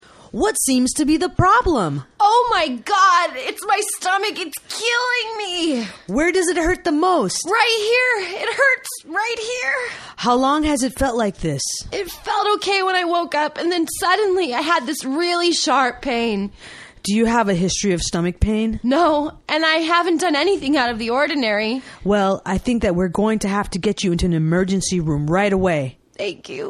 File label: Conversation A Medical Emergency